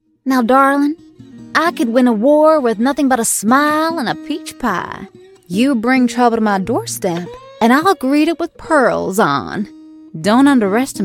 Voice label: US Southern